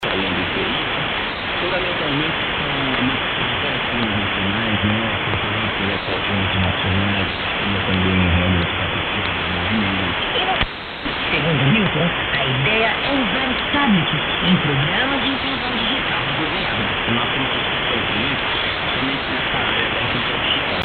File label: 11765khz AM; SuperRadio Brazil